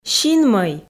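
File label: "синмӧй" Komi, female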